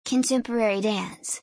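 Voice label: "contemporary dance", English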